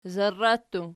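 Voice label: "zarra" plural, Archi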